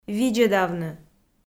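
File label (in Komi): "видзӧдавны", Komi, female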